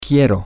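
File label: I want, Spanish, male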